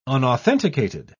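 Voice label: "unauthenticated", British English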